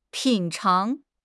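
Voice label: "品尝", Mandarin Chinese; HSK6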